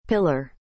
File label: "pillar", English